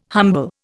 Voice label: "humble", Indian

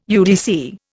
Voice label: "UDC", Indian

